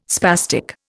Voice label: "spastic", Indian